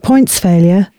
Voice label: announcements, female